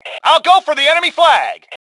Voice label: Self Attack Flag, Male; T2 Male 01